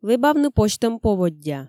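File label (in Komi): "лэбавны позьтӧм поводдя", Komi, female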